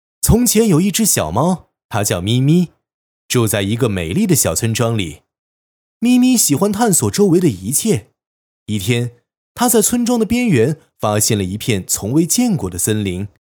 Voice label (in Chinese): 男, 旁白; 从前有一只小猫